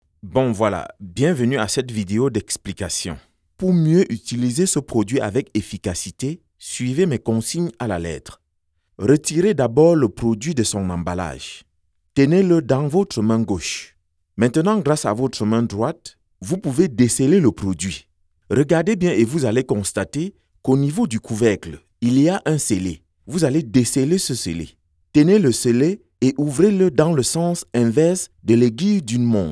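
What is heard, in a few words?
video explainer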